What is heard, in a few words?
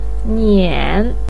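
"nian", Mandarin pinyin, tone 3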